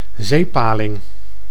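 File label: "zeepaling", Dutch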